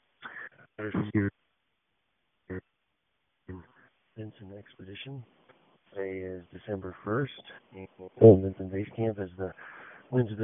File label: voicemail